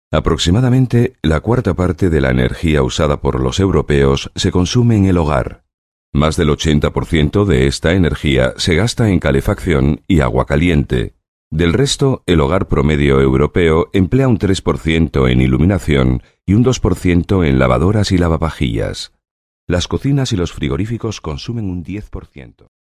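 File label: Spanish, male, corporate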